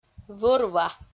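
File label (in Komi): "вӧр-ва", Komi, female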